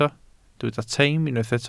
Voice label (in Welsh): "teim" Welsh